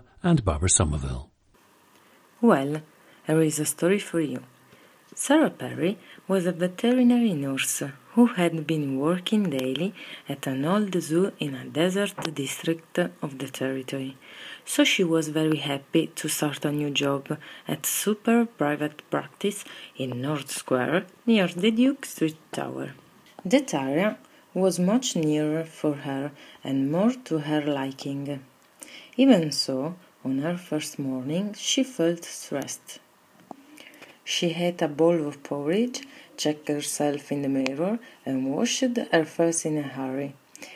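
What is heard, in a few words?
italy, International Dialects of English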